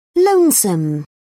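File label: "lonesome", British English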